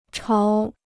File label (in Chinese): "潮", Chinese